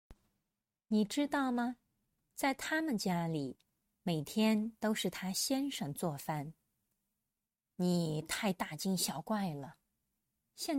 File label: denoised; Chinese Idiom大dà惊jīng小xiǎo怪guài